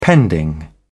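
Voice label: "pending", English, British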